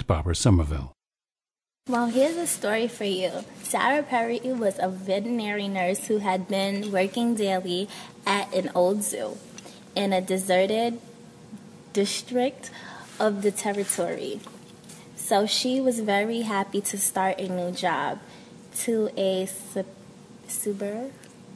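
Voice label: new york, International Dialects of English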